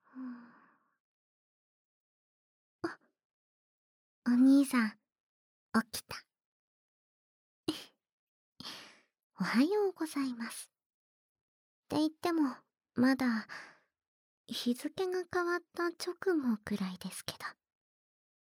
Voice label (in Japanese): リラクゼーション授乳耳かきです, ASMR